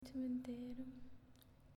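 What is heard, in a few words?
"Cimitero", Italian, female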